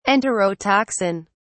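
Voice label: "enterotoxin", British English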